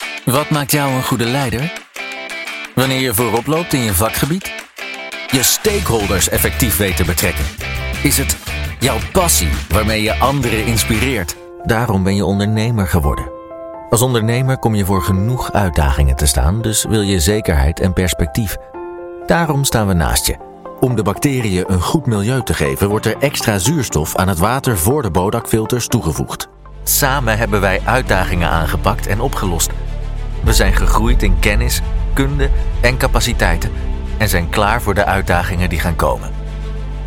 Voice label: Dutch corporate videos